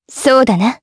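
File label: Vox Aff Japanese; kingsraid; jp; Lewsia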